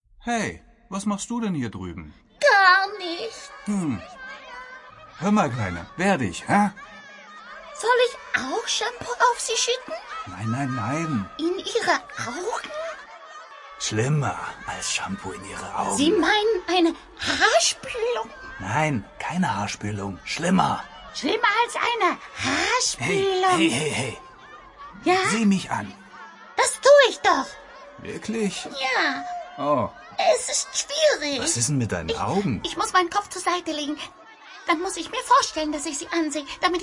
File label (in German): trickstimme